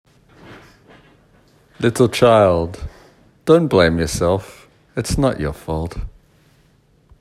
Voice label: voice message